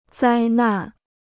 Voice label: "灾难", Chinese